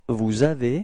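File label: "avoir vous", French; frans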